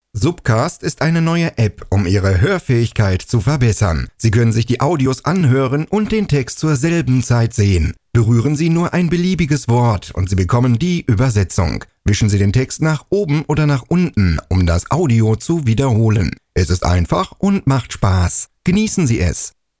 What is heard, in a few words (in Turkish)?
Almanca